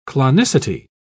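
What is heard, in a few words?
"clonicity", British English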